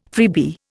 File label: "freebie", Indian